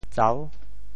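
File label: "tsau6", Teochew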